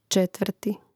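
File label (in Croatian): "četvrti", Hrvatski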